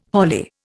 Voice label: "holly", Indian